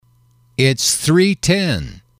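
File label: "it's three ten", English, male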